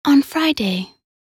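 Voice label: voc en week, English; weekday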